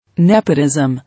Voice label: "Nepotism", English